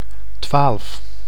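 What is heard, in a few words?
"twaalf", Dutch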